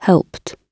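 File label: "helped", British English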